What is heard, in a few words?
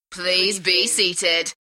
UK female, Please be seated; beanstalk audio